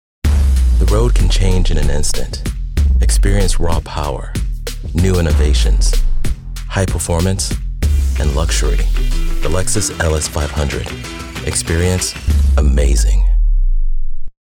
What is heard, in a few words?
english american automotive